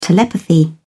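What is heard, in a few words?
"telepathy", British English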